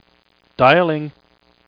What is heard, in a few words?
Dialing (Male); q95demo